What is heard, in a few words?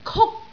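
"kuk1", Cantonese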